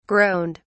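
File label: "groaned", British English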